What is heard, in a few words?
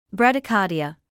"bradycardia", English